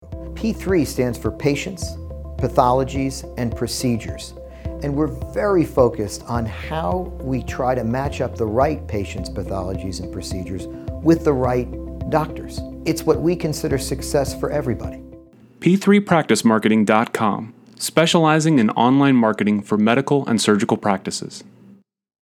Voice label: Commercial Spot; P3 Marketing; HIMSS22